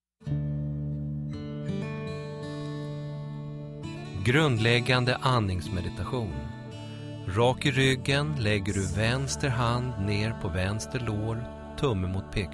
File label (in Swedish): Andningsmeditation